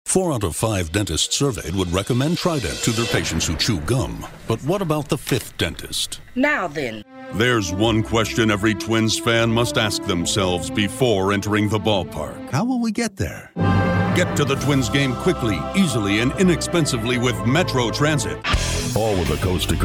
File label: american commercial